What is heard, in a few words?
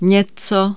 something, Czech